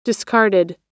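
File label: "discarded", American English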